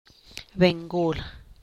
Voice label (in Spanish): vieja